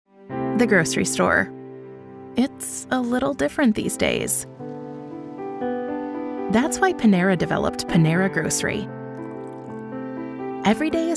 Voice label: Commercials; COVID-19